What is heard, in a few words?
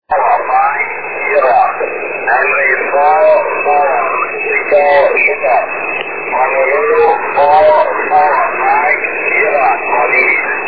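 40m single sideband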